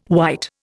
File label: "white", Indian